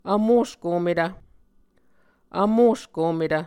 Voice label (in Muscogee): "vmoskometv", muscogee